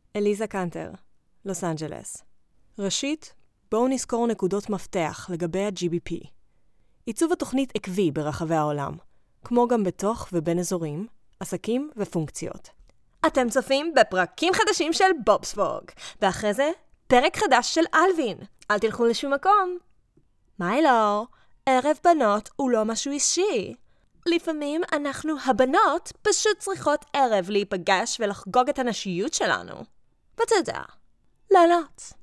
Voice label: VO reel hebrew